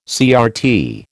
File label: "CRT", American